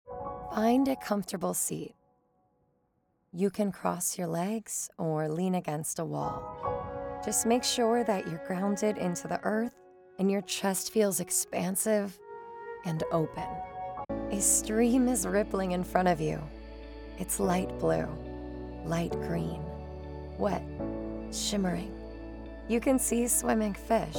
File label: Mediation and Affirmation